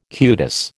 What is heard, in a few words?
"cutis", American